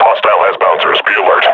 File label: sees grenades, combine grunt; Half Life Model Pack